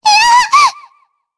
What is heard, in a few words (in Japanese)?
Damage, jp; Shea; kingsraid audio